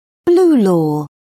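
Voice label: "blue law", British English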